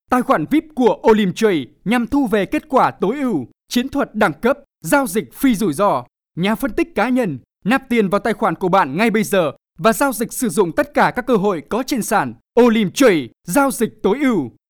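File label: Vietnamese North, male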